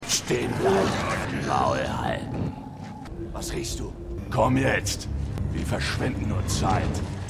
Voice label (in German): Orc, Stimmproben; DER HERR DER RINGE: DIE RINGE DER MACHT; The Lord of the Rings